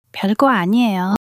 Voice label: shy

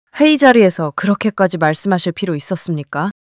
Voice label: anger

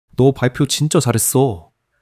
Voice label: joy

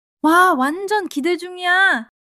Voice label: excitement

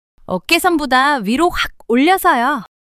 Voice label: fluster

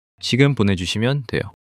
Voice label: neutral

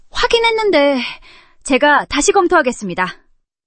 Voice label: fluster

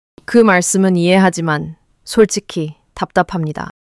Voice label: anger

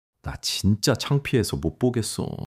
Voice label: shy

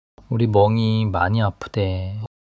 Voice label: sadness

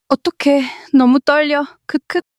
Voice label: fluster

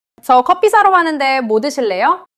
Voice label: neutral

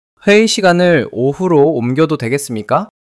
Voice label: neutral

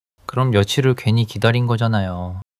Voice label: sadness

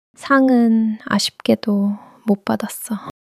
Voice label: sadness

